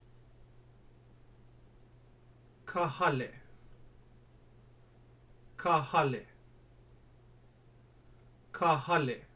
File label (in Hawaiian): Ka Hale, hawaiian pronunciation; hawaiian pronunciation guide